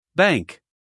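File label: "bank", American English, male; everyone-can-use-english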